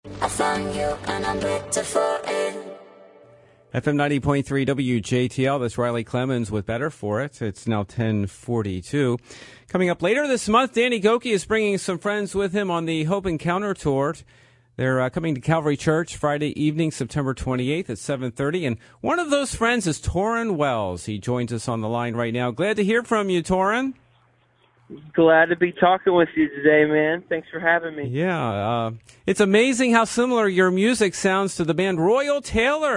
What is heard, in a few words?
Conversation